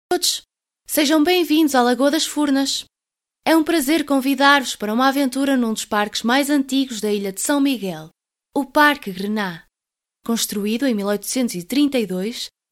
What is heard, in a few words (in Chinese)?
葡萄牙女声; 外籍